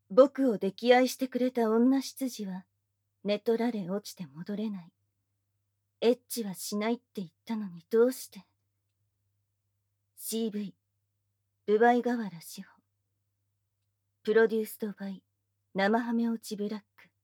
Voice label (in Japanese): タイトルコール, ASMR